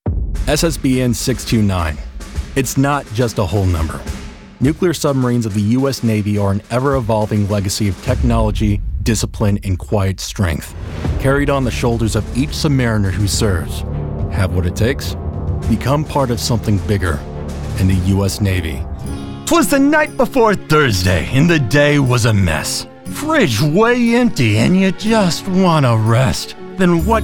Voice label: Commercial